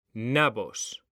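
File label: "nabos", Spanish; mekanta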